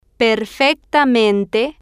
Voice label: "perfectamente", Spanish

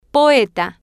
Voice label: "poeta", spanish

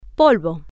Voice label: "polvo", spanish

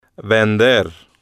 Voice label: "vender", spanish

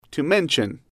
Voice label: to mention, English

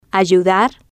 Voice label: "ayudar", spanish

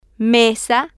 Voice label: "mesa", Spanish

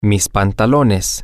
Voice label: sp pants, M

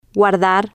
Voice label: "guardar", spanish

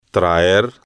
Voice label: "traer", Spanish

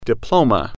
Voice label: "diploma", spanish

